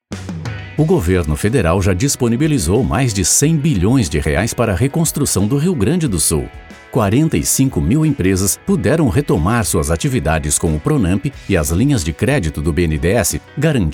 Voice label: portuguese brazilian explainer videos; locutor brasileño